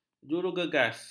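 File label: "jurugegas", Malay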